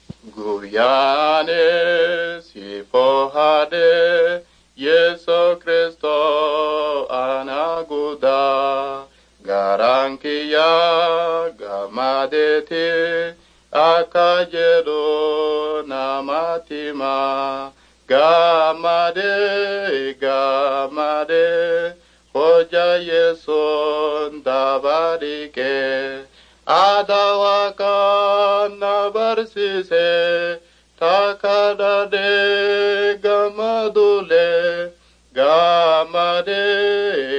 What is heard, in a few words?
words of life borana